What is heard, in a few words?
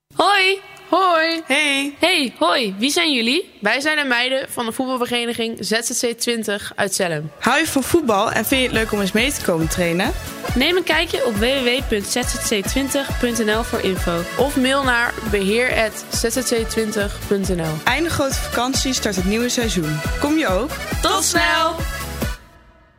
Commercial; Meiden; radio